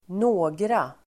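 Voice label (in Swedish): "några", swedish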